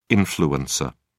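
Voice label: "influencer", English, British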